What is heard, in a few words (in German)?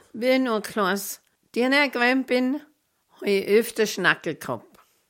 hoerbeispiel suedmittelbairisch maishofen; sprachliche vielfalt